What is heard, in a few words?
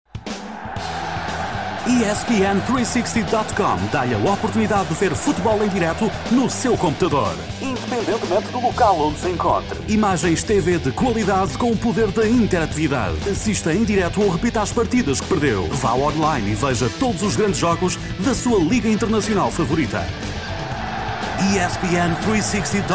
promo